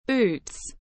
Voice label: "boots", English